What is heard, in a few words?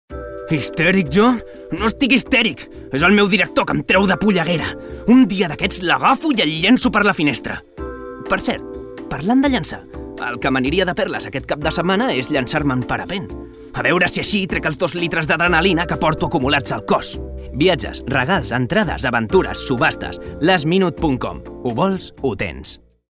male catalan tv comercial; ideasonora